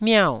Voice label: "miau4", Taiwanese